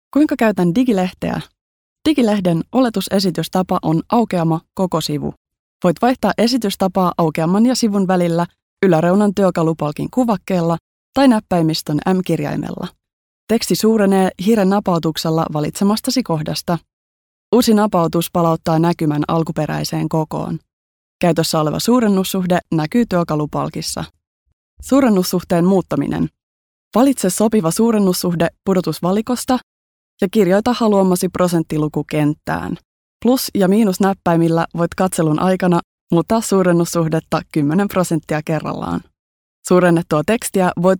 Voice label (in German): Nachvertonung Film Sprecherin Finnisch